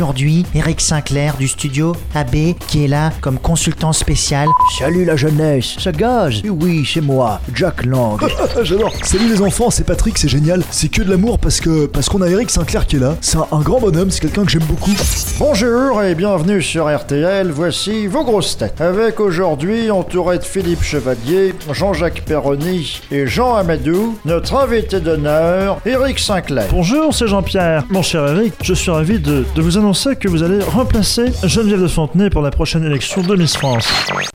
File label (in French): imitations